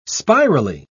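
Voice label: "spirally", British English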